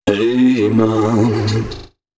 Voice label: male d#130, spoken hooks

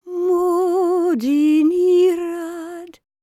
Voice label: WELSH F, World Voices

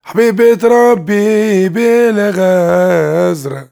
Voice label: MAN VOICE, Arabian Traditions

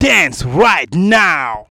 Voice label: "dance righ", shouts; Best Service; Hyper Dance

